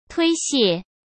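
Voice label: "推卸", Mandarin Chinese; hsk; chinese-flashcards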